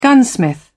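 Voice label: "gunsmith", British English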